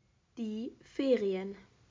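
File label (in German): "Ferien", German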